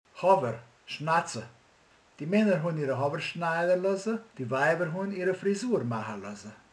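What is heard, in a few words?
"haj", Hungarian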